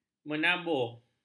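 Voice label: "menabuh", Malay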